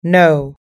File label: "know", us english